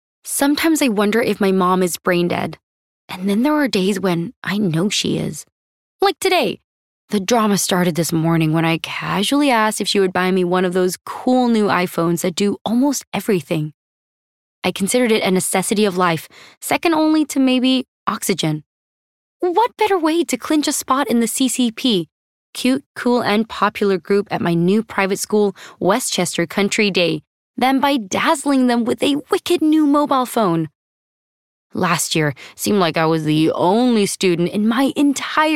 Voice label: Audio Book